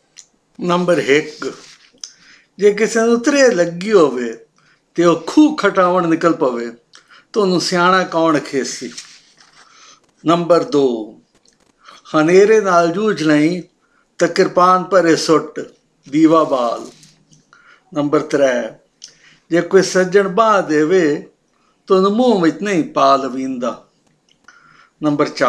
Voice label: Shahpuri dialect